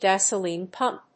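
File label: "Gasoline pump", English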